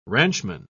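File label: "ranchman", British English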